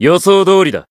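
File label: Voice growth, Japanese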